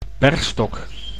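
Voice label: "bergstok", Dutch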